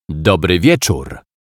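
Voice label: "Dobry wieczor", Polish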